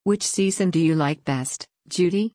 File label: fast